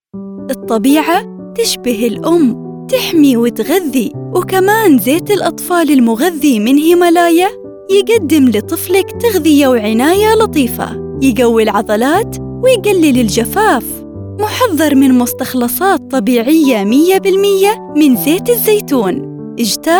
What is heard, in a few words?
Arabic corporate videos